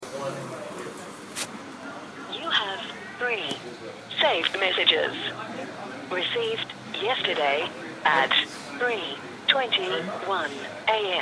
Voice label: Voicemail; Occuparty